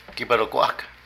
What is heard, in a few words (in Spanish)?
Armas, Zapoteco de Petapa; Vocabulary